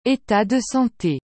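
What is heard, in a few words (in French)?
"etat de sante", French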